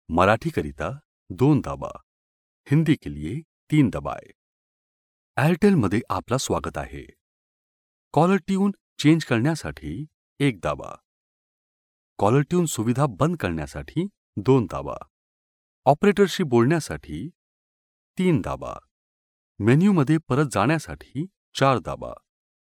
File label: male marathi voice over talent upbeat